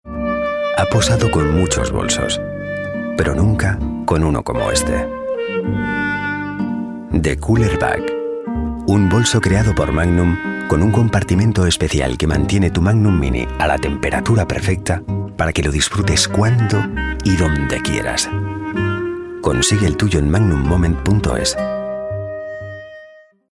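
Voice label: male Spanish ice cream commercial; ideasonora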